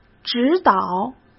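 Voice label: "指导", Mandarin Chinese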